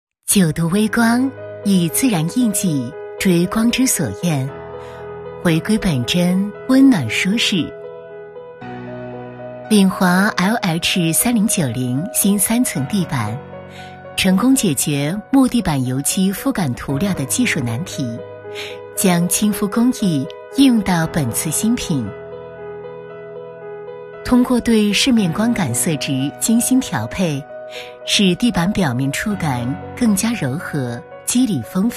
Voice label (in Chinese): 女 宣传片 产品介绍 地板